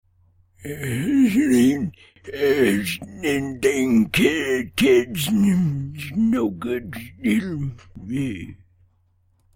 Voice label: old man mumbling